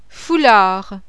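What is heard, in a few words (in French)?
"FOULARD", French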